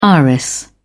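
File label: "iris", English, British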